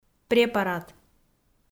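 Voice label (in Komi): "препарат" Komi, female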